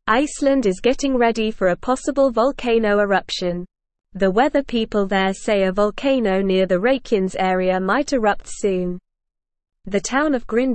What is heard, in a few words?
English Lower Intermediate NORMAL Reading; Volcano Might Wake Up in Iceland Soon; English Newsroom